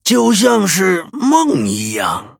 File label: Male Dryad Well Played; zh